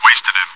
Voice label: cheer; svencoop